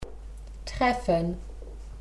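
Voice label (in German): "treffen", German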